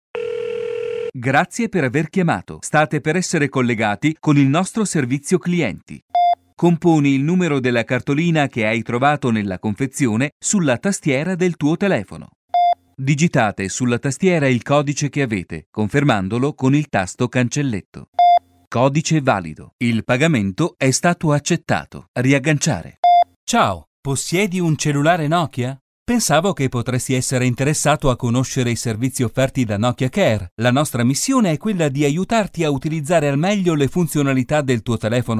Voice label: on hold